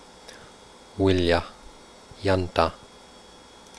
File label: quenya semivowels